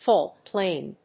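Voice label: "fault plane", English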